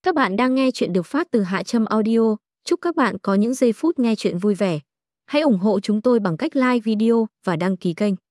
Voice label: intro voice; Nhan Vat Khong Co Trong Ma Nguon; HaTramAudio